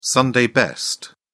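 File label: "sunday best", English, uk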